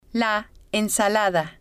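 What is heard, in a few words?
"la ensalada", Spanish, female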